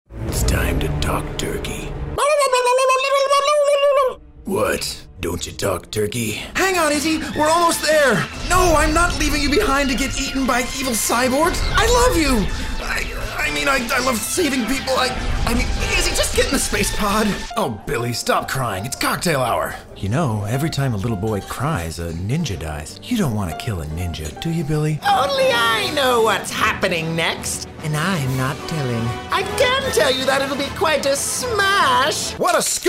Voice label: Animation